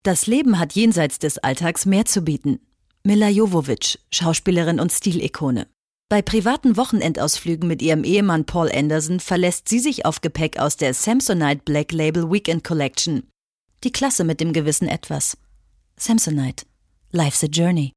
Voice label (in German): kommentar